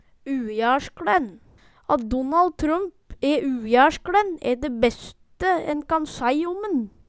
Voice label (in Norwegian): ujaerskLen, Numedalsmål